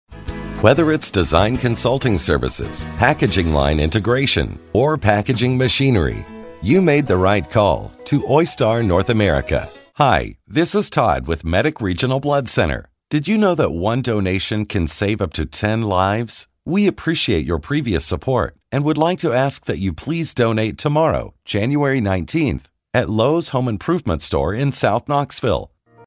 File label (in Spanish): USA Masculino